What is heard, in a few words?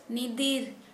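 Santali female white ant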